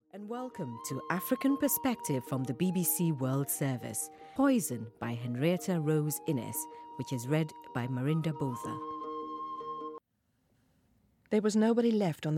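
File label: english audiobooks, female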